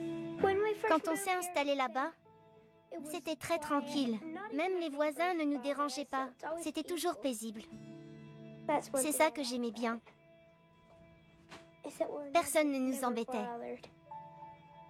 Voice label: Voice over jeune fille documentaire; Discovery

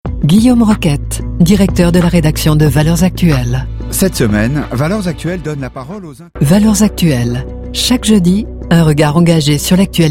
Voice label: Pub Radio; Valeurs Actuelles

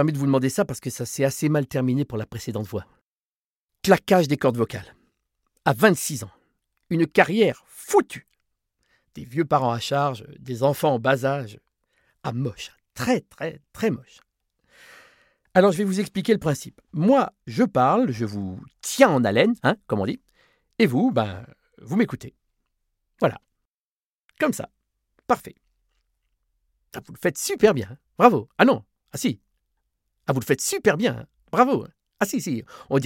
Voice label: Message d'attente; LE REMPLACANT

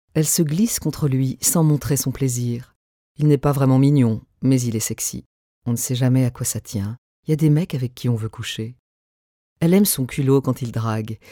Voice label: Francais; V. Despente

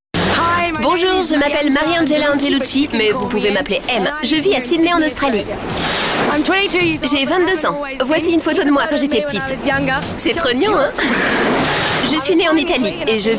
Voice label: Doublage Voice Over

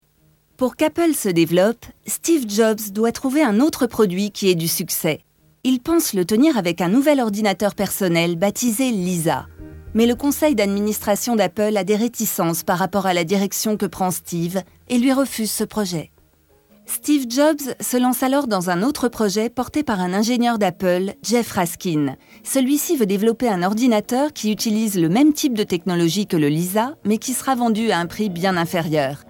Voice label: doc narration naturelle informative; STEVE JOBS LE VISIONNAIRE

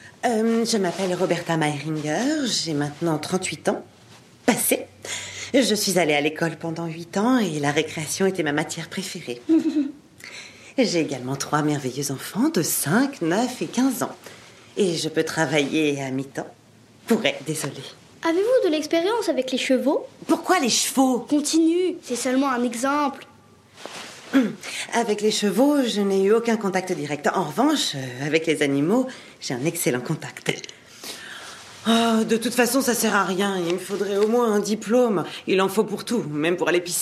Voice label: Doublage

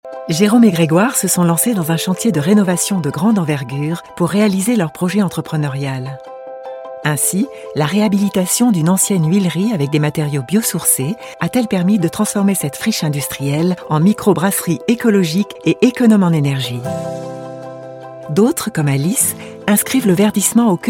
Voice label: souriant chaleureux; BPCE

